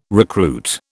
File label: "recruit", British